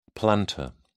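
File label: "plantar", British English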